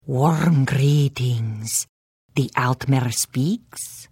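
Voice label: Hello, Khajiit female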